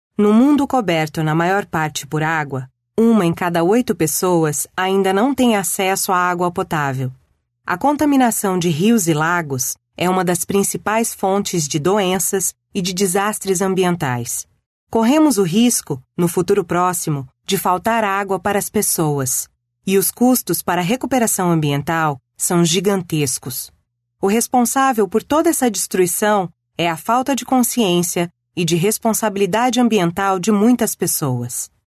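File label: Brazilian Portuguese, female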